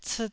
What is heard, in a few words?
"ciit1", Taiwanese